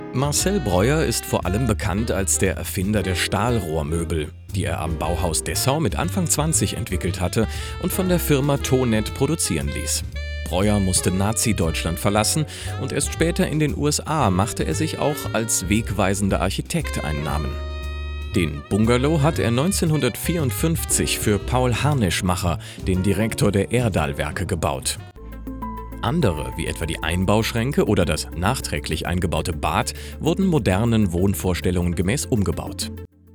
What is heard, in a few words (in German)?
Reportage; Bauhausvilla